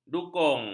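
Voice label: "dukung", Malay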